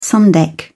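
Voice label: "sun deck", British English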